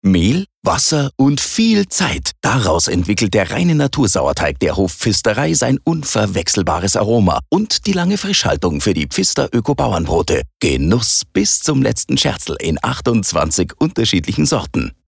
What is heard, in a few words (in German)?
Werbung Freundlich; Hofpfister